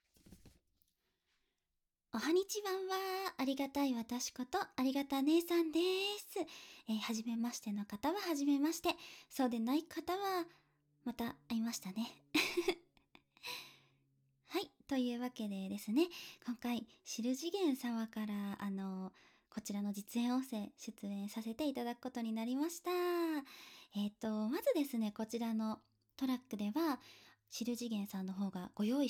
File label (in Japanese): ごあいさつとインタビュー, ASMR